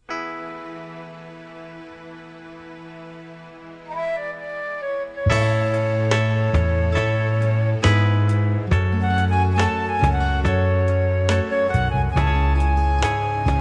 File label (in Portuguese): aniv de avo voz infantil plural; aniver avos